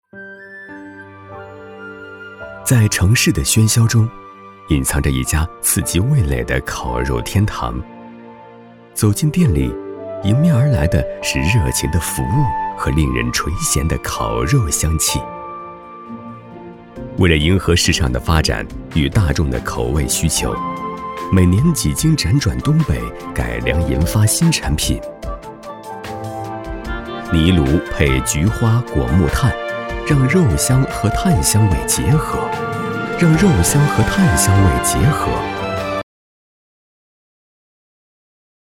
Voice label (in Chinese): 男, 舌尖